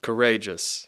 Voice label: "courageous", English